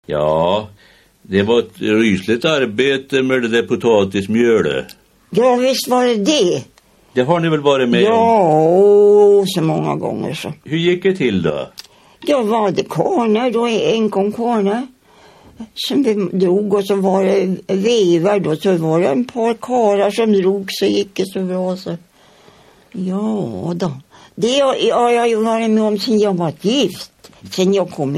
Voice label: Vaddo, dialekter

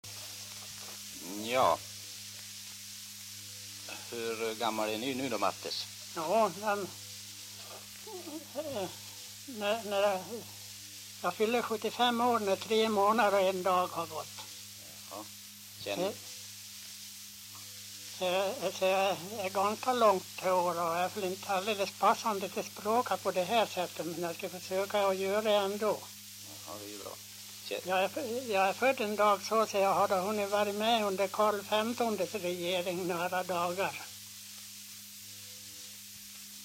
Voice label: dialekter; Folkminnen; Svenska sagor

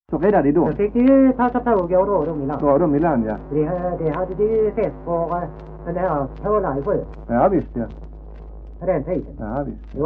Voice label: Degeberga SK, dialekter; Folkminnen; Svenska sagor

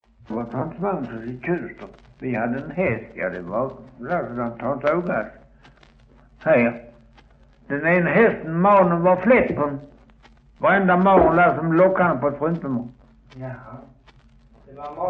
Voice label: Östra Ingelstad, dialekter, sagor; Folkminnen; Svenska sagor

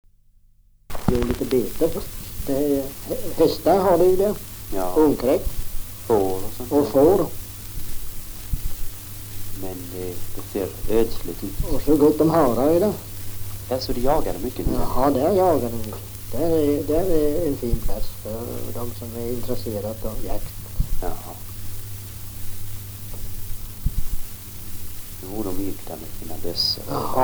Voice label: Svenska sagor, dialekter; Folkminnen

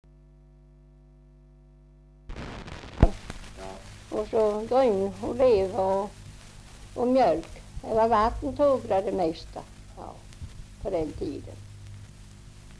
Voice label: Svenska sagor, dialekter; Folkminnen

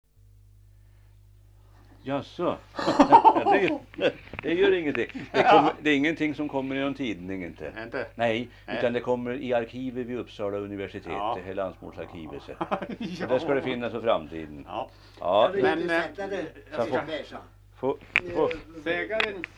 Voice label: Bjuråker, dialekter; Folkminnen; Svenska sagor

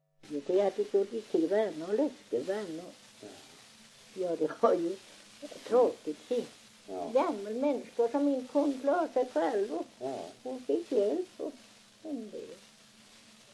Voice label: dialekter; Folkminnen; Svenska sagor

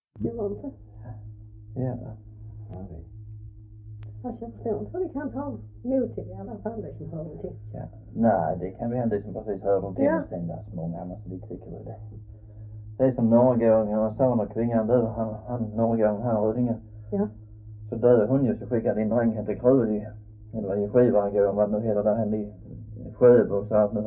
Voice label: Röddinge SK, dialekter; Folkminnen; Svenska sagor

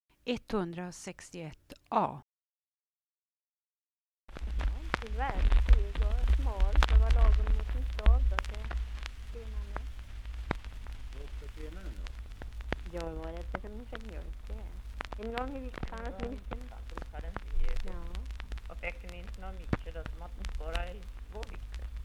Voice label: dialekter, Svenska sagor; Folkminnen

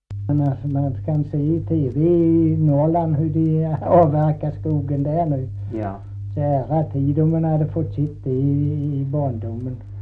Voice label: Kristianopel, dialekter; Folkminnen

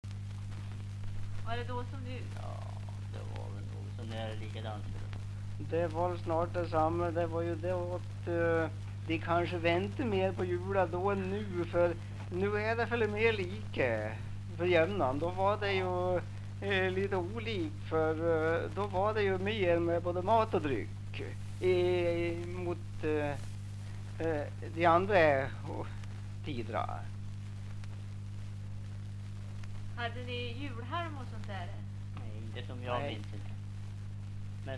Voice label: dialekter; Folkminnen; Svenska sagor

